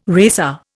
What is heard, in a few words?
"racer", Indian